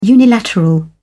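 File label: "unilateral", British English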